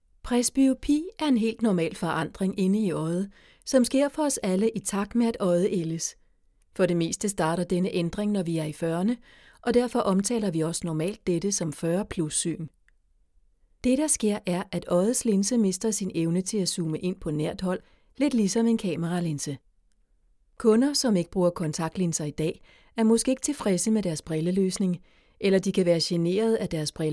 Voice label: danish e-learning

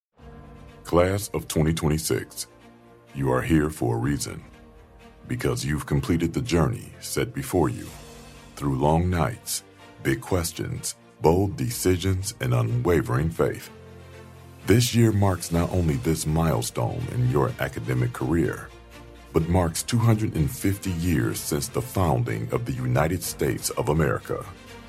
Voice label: english american announcements

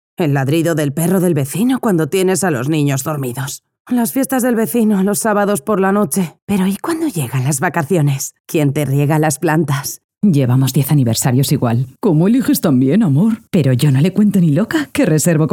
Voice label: spanish castilian

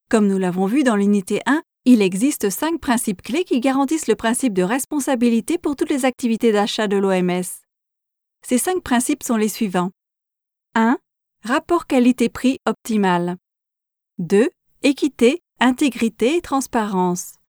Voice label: french e-learning

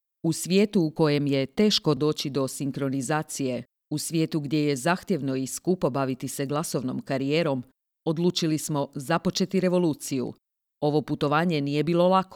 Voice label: croatian narration

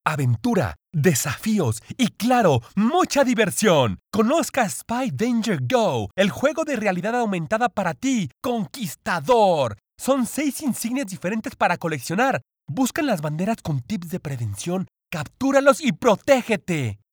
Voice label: spanish latin american video games

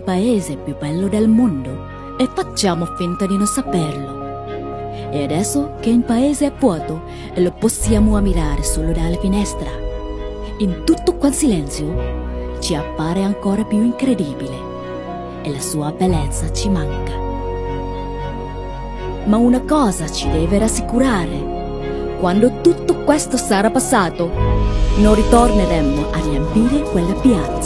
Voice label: Italian commercial

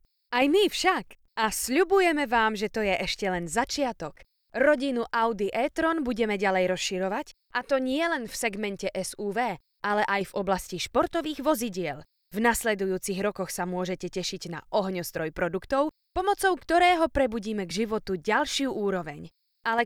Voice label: slovak audiobooks

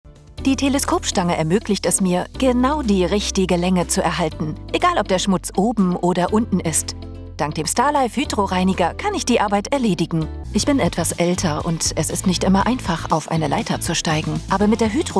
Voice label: German tv shows

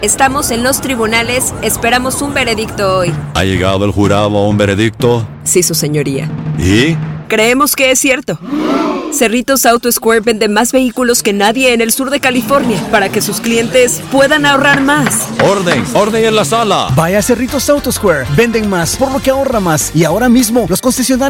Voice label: spanish latin american automotive

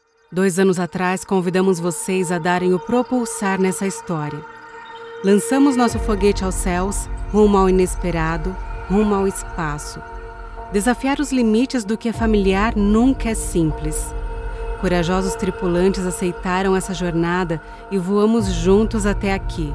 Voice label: portuguese brazilian movie trailers

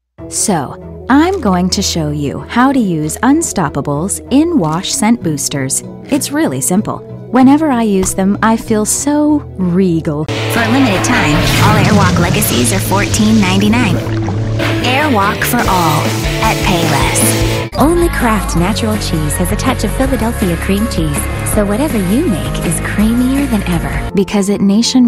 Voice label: english american impersonations

